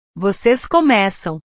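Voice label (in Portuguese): "vocês começam", Portuguese; portugues/verbos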